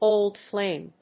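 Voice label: "old flame", English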